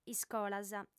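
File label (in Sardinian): "iscolas", Sardinian, female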